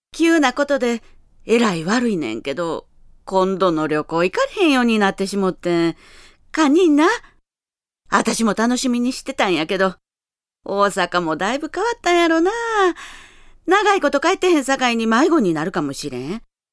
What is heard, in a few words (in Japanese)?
方言, 大阪弁